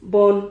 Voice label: "bon", Silabas

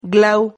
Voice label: "glau", Silabas

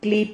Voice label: "clip", Silabas

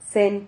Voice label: "xen", Silabas

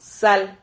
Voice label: "sal", silabas

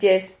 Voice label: "yez", Silabas

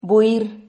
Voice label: "buir", Silabas

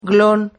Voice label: "glon", Silabas

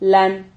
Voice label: "lan", Silabas

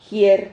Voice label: "jier", Silabas

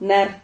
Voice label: "nar", Silabas

